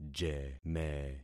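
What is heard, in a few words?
"jeme", concatenated syllables; Affixing experiment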